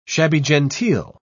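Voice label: "shabby-genteel", British English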